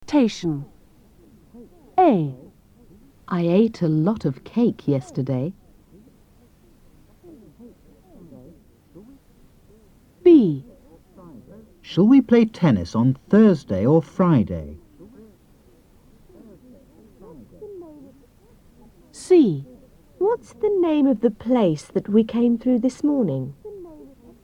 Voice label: dictation